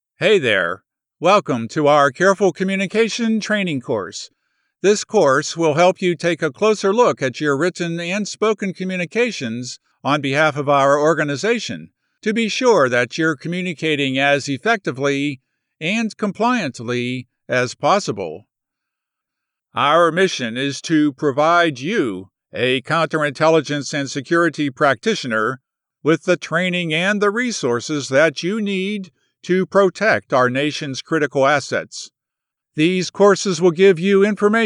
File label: Elearning; Demo Reel